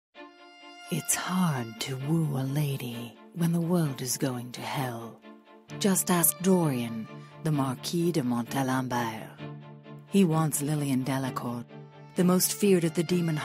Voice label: Paranormal Dramatic Dark

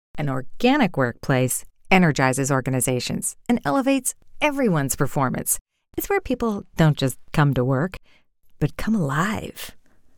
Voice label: Natural Fun

